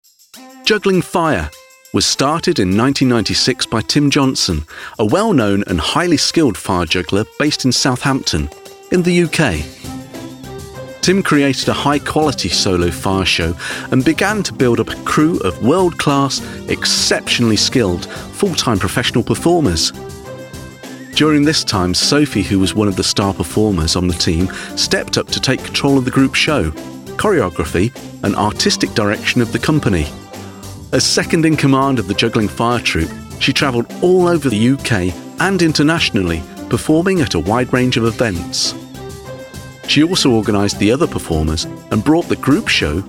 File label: explainer